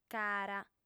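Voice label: "cara", female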